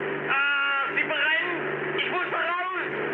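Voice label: German on fire mood high, speech; War Thunder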